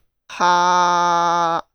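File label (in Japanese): "は", Japanese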